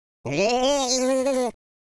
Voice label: scared, Scotsman; speech